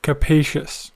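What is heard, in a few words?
"capacious" English, American accent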